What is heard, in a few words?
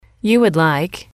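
you would like, female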